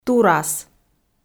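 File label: "турас", Komi, female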